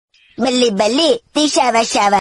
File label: In Shinchans Voice